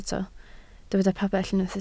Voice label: "pabell", Welsh